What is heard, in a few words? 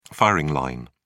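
"firing line", British English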